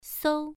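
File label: "sou", Mandarin Chinese syllable, first tone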